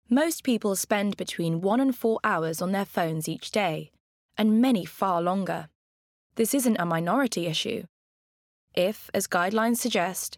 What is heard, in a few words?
Bookread; Irresistible